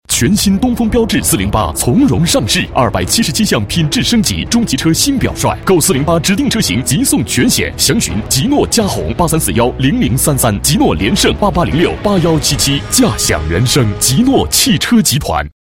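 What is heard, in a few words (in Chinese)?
广告电台汽车广告; 标致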